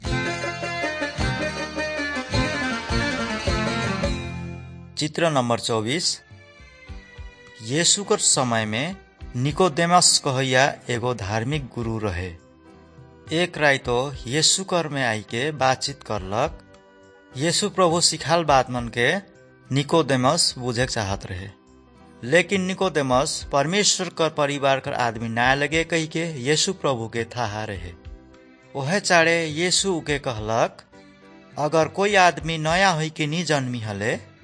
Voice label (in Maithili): good news maithili, nt drama